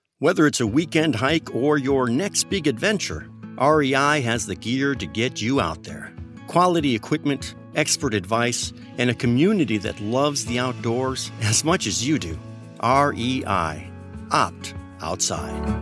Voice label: online Ad male voiceover conversational; REI Outdoors